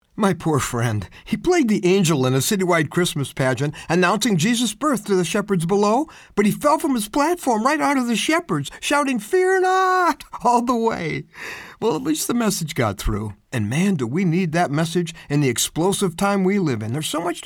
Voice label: dry; Peace In A Scary World